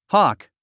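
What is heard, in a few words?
"hawk", English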